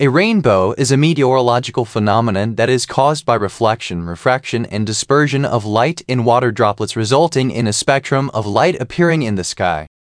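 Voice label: speaker, American English; piper-voices; en US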